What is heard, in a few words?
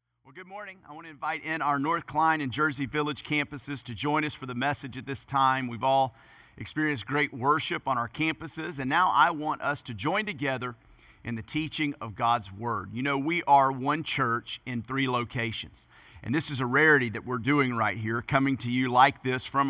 Sermon English